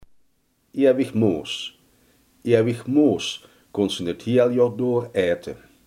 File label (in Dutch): "splijtkool", Limburgs